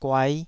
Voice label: "guai5", Taiwanese syllable